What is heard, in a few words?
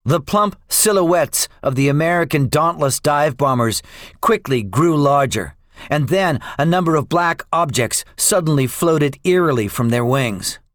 debriefing, english; midway; War Thunder